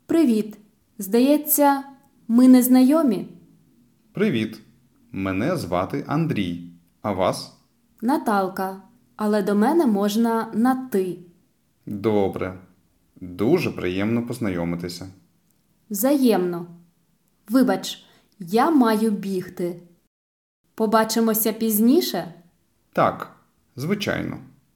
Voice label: Dialogue Slow